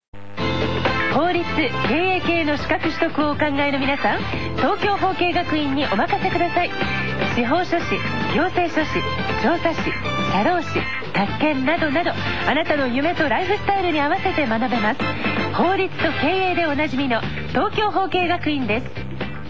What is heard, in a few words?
radio ad